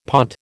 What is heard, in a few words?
"pont", American